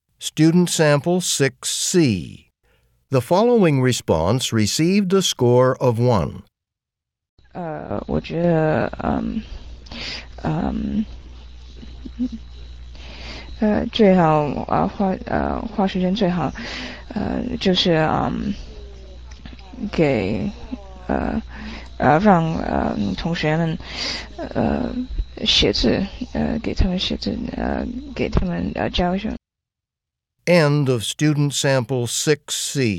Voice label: chinese conversation